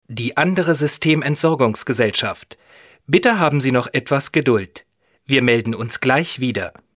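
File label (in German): deutsch, Telefonansagen männlich; Sprecher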